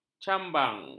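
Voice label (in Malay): "cambang", Malay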